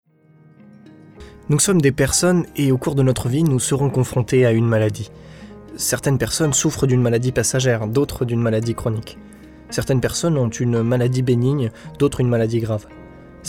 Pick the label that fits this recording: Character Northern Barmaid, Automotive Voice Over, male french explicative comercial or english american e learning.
male french explicative comercial